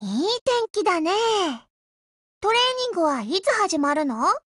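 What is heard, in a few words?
HELLO, Japanese; GF Alarm; EVO3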